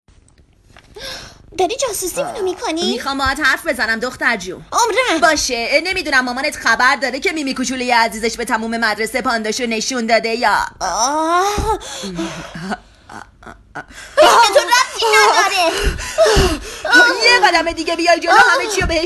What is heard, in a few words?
narrator